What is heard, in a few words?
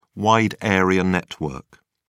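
"wide area network", British English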